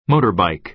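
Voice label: "motorbike", American English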